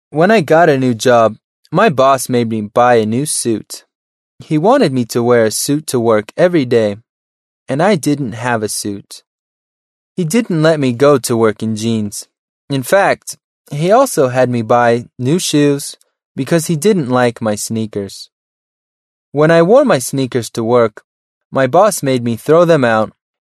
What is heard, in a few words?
american male